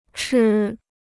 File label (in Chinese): "吃", Chinese